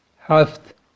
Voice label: "haft", Kurmanji